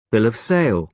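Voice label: "bill of sale", British English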